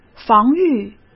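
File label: "防御", Mandarin Chinese; hsk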